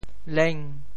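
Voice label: "leng5", Teochew; 揭阳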